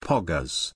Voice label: "poggers" pronunciation